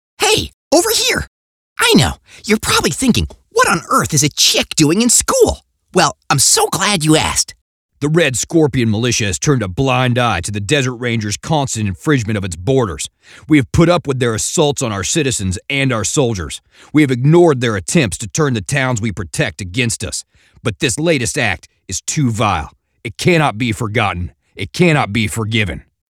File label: Character